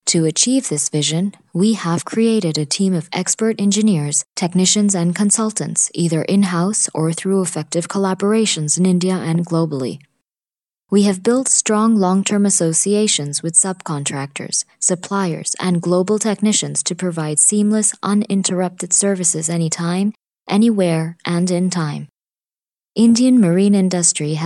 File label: corporate us eng